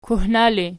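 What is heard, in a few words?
"kuhna", ergative, Archi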